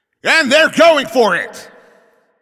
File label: Shot On Goal, Announcer